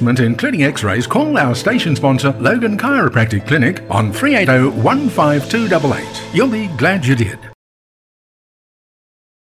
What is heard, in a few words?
Radio Ad Neck Shoulder Arm Pain